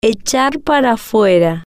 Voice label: "echar Para Fuera", spanish

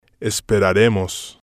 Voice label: "esperaremos", spanish

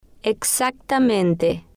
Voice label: "exactamente", Spanish

